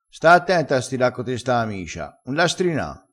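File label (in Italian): "strina", dialettale, crespinese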